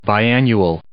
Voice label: "biannual", English